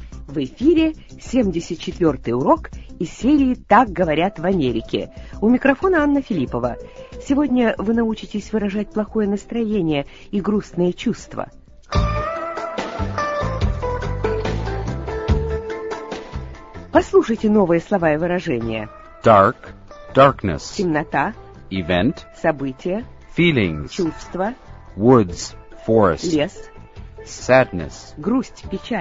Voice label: russian english